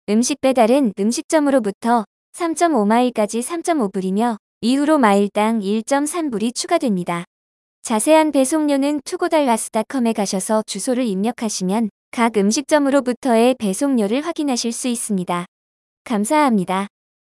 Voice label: IVR delivery Fee; togodallas